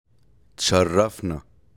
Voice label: Arabic greetings